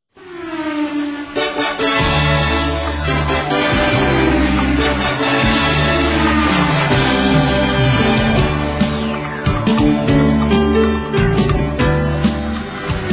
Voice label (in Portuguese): Congratulações para Sucesso Masculino; Telemensagens; Otimismo